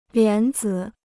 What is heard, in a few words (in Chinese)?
"莲子", Chinese